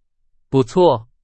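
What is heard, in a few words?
Chinese, vocabulary; hsk2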